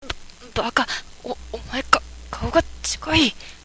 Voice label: girl